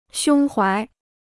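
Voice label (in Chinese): "胸怀", Chinese; xiōng huái; poitrine; buste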